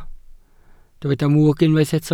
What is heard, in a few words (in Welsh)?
"mwg", Welsh